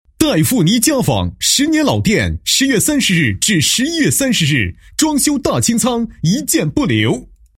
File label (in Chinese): 男 促销广告; 黛富妮家纺促销打折